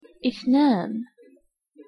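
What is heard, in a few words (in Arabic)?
"اثنان", Arabic pronunciation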